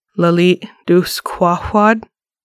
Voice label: Alternative Resources, Lushootseed